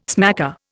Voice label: "smacker", Indian